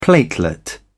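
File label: "platelet", British English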